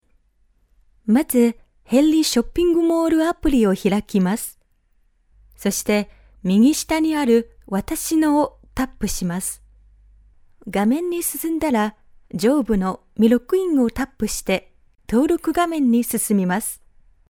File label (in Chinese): 操作指导 声线